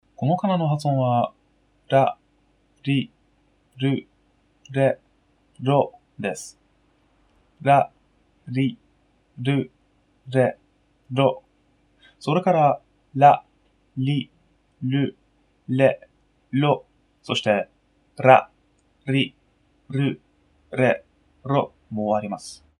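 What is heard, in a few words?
rarirurero, Japanese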